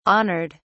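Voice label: "honored", British English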